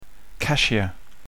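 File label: "cashier", English; jobs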